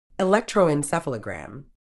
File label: "electroencephalogram", uk